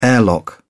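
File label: "airlock", British English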